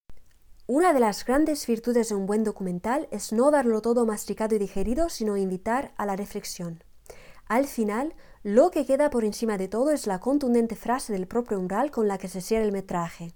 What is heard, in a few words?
Spanish